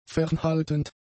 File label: "fernhaltend", german; adjectives